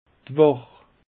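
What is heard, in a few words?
"wach", Alsatian; peche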